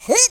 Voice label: jump, speech, Alien; Worms3D

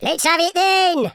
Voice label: "fireball", London Cabbie; speech

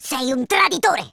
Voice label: traitor, speech Italian

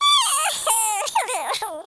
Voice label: sad, speech, alien; Worms3D

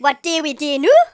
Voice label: incoming, Geordie; speech

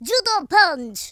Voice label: dragon punch, Russki

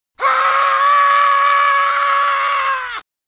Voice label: scared

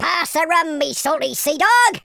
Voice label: "excellent", Pirate

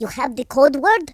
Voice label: hello, Russki

